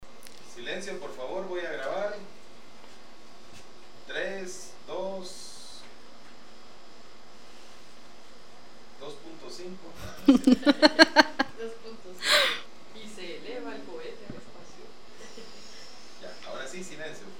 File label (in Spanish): comercial propio; museo de la universidad de san carlos de guatemala musac